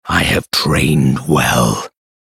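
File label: Hero Level Up US English, Мужчина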